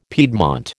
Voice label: "piedmont", American